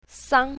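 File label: "sang1", pinyin, south, female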